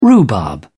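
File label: "rhubarb", British English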